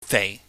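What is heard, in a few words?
"fei", Cantonese, low falling tone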